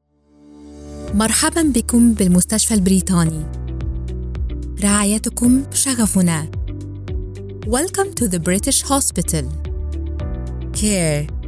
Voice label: IVR; British Hospital

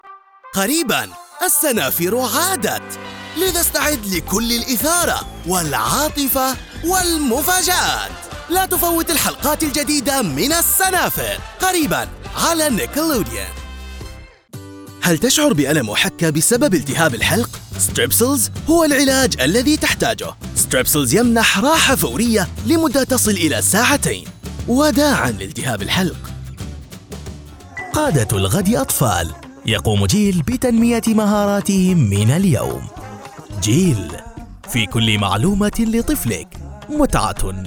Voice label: Standard Arabic Demo

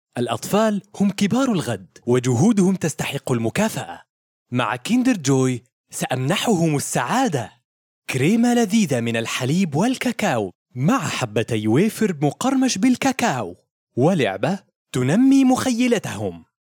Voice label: Traditional Arabic Commercial

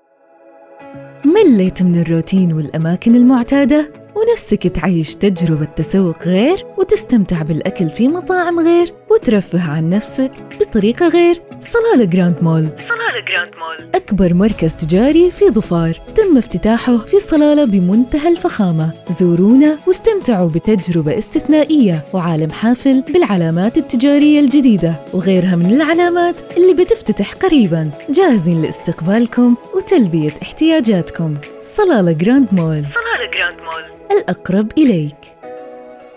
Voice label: Ad; Grand mall SLL

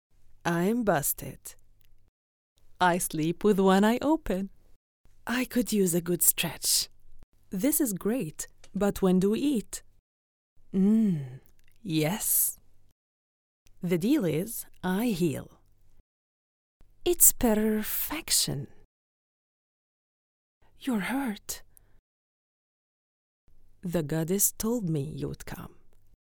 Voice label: En Egyptian Queen Gameing; Bastet Egyptian Queen

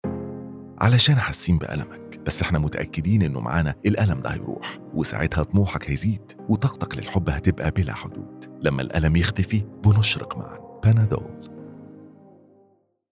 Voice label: Egyptian Dialect; Panadol

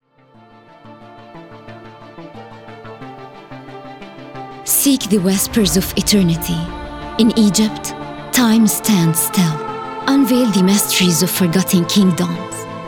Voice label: English

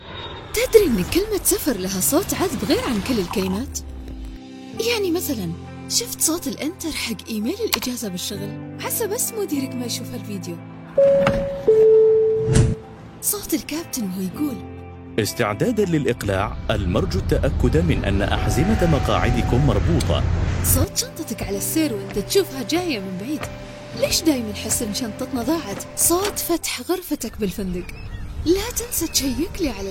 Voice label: Voice Over

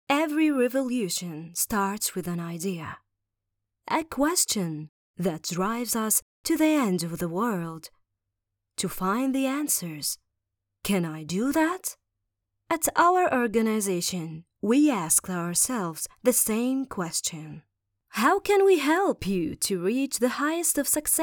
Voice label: english ad info

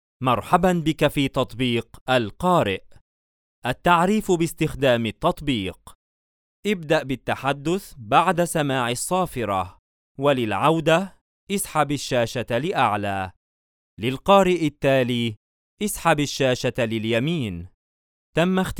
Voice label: IVR, Arabic

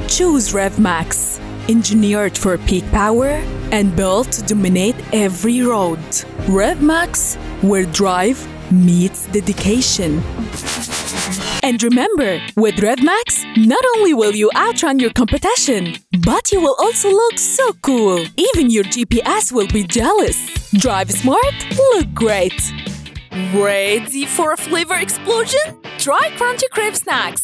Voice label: English Commercial

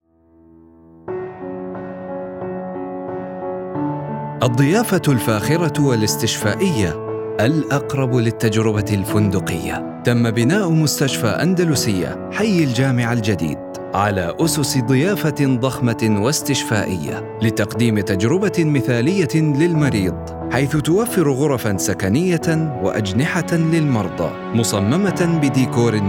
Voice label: Hospitality Mix documentary

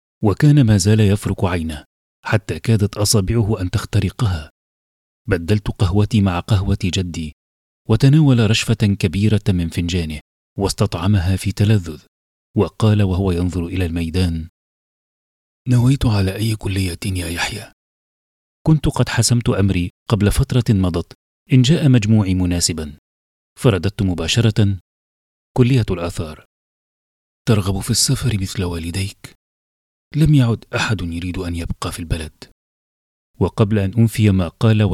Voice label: audiobooks

